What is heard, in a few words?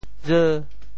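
"jeu5", Teochew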